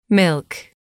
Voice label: "milk", English

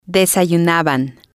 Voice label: "desayunaban", spanish

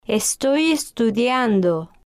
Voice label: "estoy Estudiando", spanish

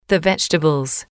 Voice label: the vegetables, English

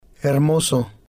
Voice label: "hermoso", Spanish

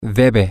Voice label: he should, spanish, M

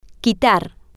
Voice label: "quitar", spanish

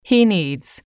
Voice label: "he needs", English

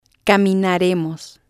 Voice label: "caminaremos", spanish

